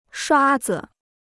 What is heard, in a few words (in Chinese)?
"刷子", Chinese